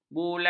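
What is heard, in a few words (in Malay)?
"bulan", Malay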